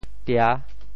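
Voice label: "tia6", Teochew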